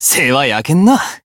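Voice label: Voice heal, Japanese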